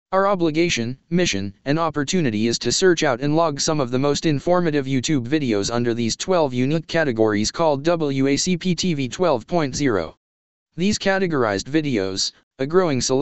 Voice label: text 2 voice